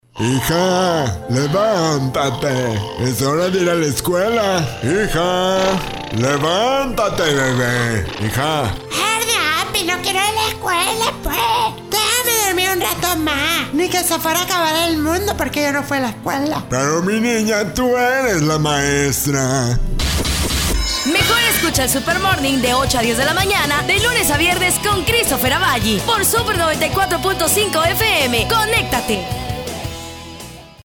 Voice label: PROMO; SUPERMORNING